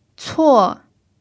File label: "cuo4", Mandarin pinyin